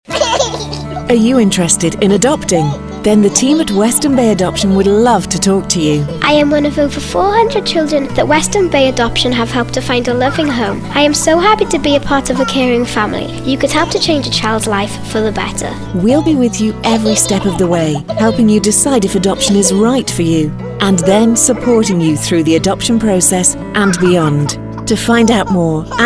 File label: English; Western Bay